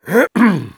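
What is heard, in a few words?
battle cry, German; Spy; "Ahem..."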